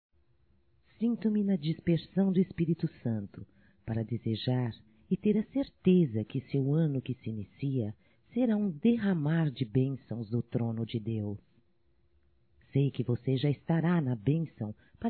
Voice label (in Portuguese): Ano Novo Neutro Feminino; Natal & Ano Novo; Telemensagens 2017